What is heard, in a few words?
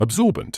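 "absorbent", British English; əbˈzɔː.bənt; uhb-ZOR-buhnt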